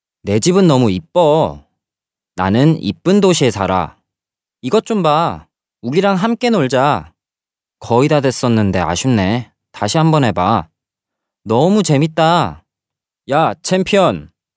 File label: Male Korean Toys